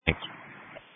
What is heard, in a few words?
Sheriff Dispatch